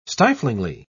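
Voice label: "stiflingly", British English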